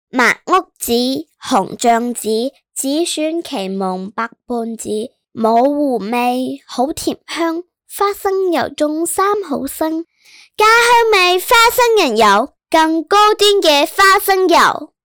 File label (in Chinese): 女童 粤语